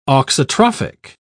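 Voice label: "auxotrophic", English, British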